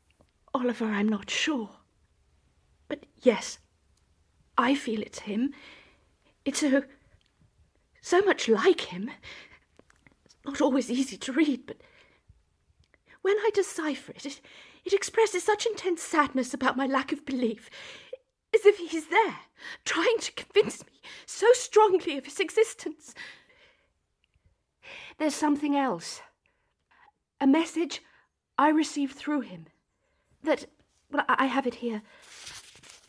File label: Radio Drama; Between Two Worlds